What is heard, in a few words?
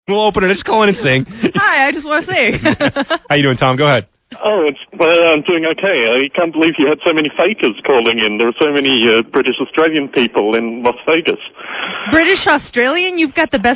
accent